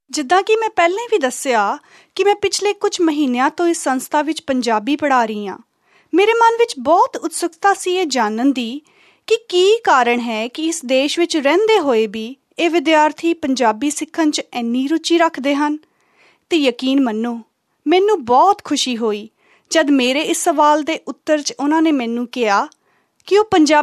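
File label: Punjabi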